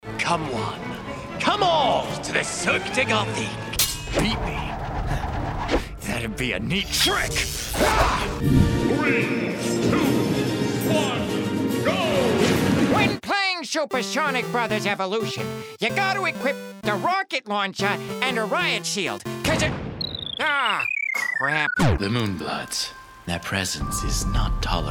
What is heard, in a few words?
animation